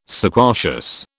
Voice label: "sequacious", British

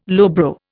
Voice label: "lowbrow", Indian

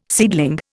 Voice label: "seedling", Indian

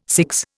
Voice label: "CIGS", Indian

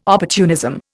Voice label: "opportunism", Indian

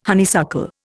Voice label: "honeysuckle", Indian

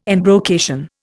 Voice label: "embrocation", Indian

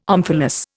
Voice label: "omphalos", Indian

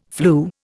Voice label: "flew", Indian

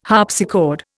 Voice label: "harpsichord", Indian

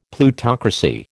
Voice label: "Plutocracy", American

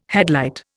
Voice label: "headlight", Indian

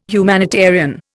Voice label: "humanitarian", Indian

